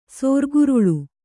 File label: "ಸೋರ್ಗುರುಳು", Kannada